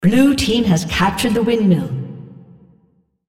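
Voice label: Windmill blue Captured female; Odin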